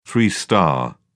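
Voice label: "two star", English, British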